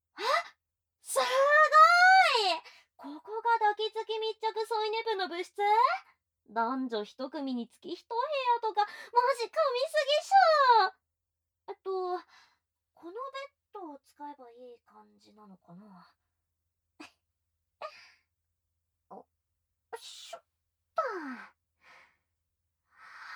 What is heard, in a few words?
ASMR; これが密着添い寝部! 安眠の秘訣は耳舐め&ハグ♪; SE無し